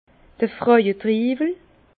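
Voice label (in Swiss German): "frauetriiwel", Alsace Bas Rhin; vin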